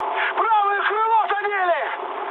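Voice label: right wing, mood high, Russian; War Thunder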